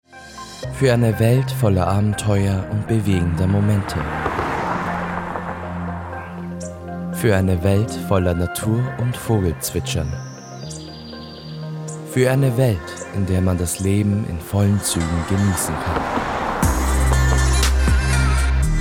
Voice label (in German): Auto Werbung